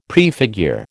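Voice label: "prefigure", American English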